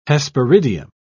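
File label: "hesperidium", English, British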